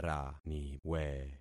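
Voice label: "raniwe", concatenated syllables; Affixing experiment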